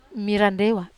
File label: "marandewa", Wamesa